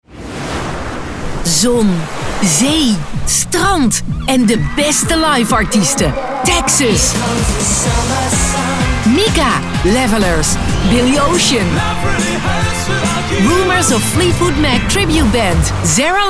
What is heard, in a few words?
beachfestival commercial